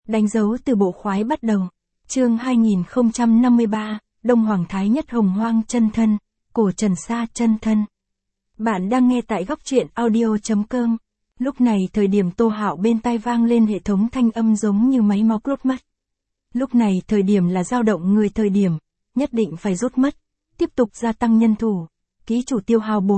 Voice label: vi-VN Standard; danh dau tu bo khoai bat dau